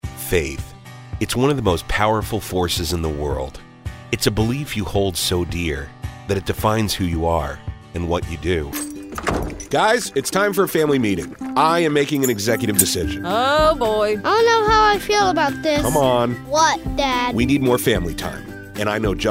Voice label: Commercial